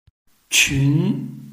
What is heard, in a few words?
"qun2", Chinese